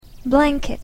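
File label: "blanket", English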